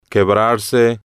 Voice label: "quebrarse", Spanish